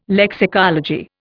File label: "lexicology", Indian